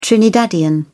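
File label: "trinidadian", British English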